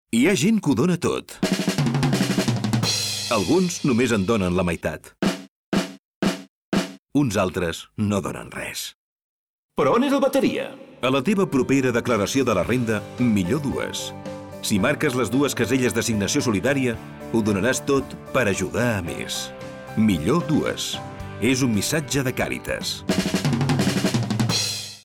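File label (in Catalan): falca radio; Cat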